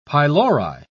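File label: "pylori", British English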